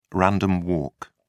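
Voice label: "random walk", British English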